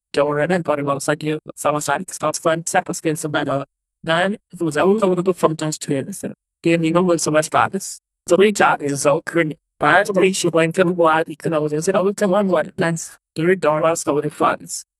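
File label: male and female english; conference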